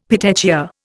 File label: "petechia", Indian; Dictionary